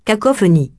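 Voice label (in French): "cacophonie" French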